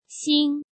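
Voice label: "Xìng", Chinese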